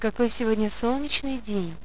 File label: monologue; svencoop; paranoia; medic